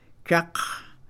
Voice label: rojo, Mam; colores; INALI

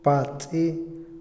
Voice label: matraca, Otomí, hombre; Habla; INALI

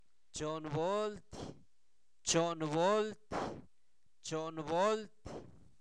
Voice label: trio, Zapoteco, santo domingo albarradas; INALI; numeros

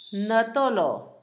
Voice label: vergüenza, Zapoteco, Asunción Ocotlan; Enfermedades; INALI; Haspelmath

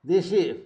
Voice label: problema, Zapoteco, Magdalena Ocotlan; Sustantivos; INALI; Haspelmath

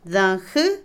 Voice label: habas, Otomí, mujer; INALI

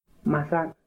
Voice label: luna, Tepehuano; INAH

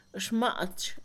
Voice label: nalgas, Mixe, Mujer; Cuerpo; INALI

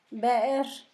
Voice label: bienestar, Zapoteco; Sustantivos; San Pedro Mártir; INALI

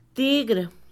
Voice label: tigre, Mixe, Mujer; Animales; INALI

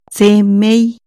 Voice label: Nos vemos, Chontal Oaxaca

